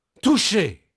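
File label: French male, voice; Pirates Of The Caribbean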